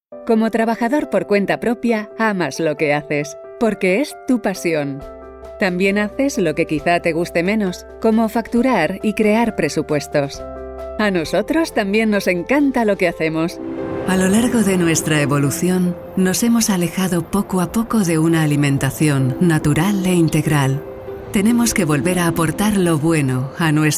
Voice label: female, Spanish corporate compilation

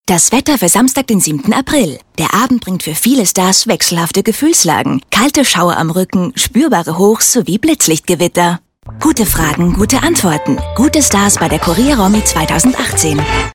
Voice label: female austrian and german german commercial; kurier

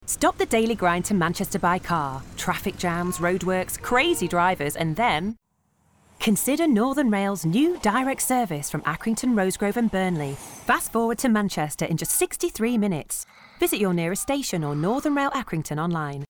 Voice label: female English Lancashire accent rail commercial; northern rail

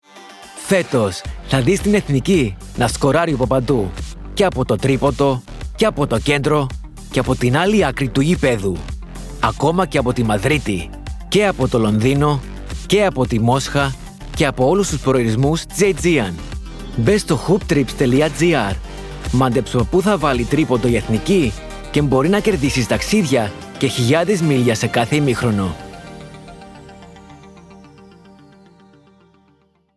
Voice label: male greek commercial

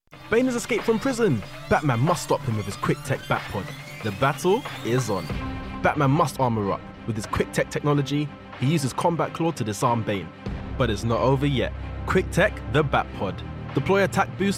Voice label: male english children's commercial

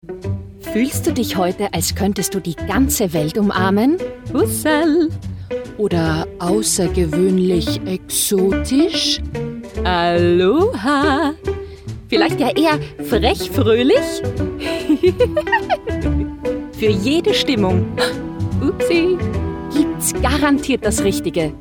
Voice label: female austrian german commercial; manner

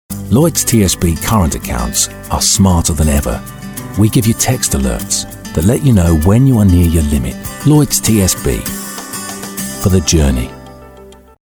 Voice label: male english commercial; english southern accents; lloyds tsb

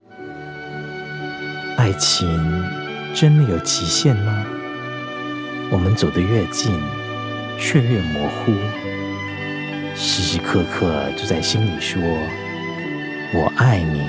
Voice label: mandarin chinese commercial compilation; voices; mandarin chinese and english; devine voices

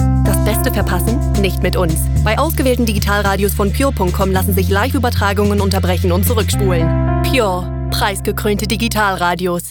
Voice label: female german radio commercial; voices; pure; devine voices

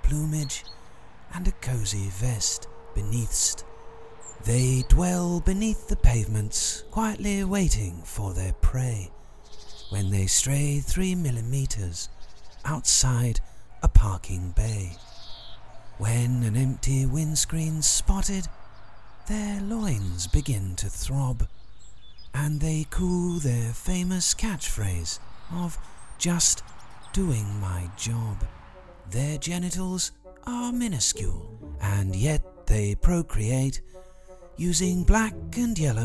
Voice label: male english traffic warden documentary parody; english southern accents; london

